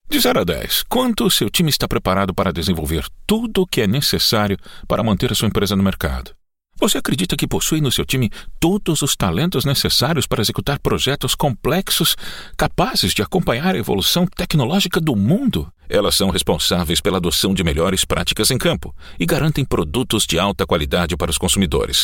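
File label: Italian Dynamic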